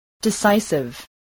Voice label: "decisive", English

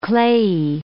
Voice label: "clayey", English

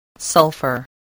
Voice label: "sulfur", spelling

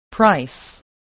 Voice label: "price", voice, spelling

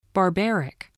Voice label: "barbaric", English

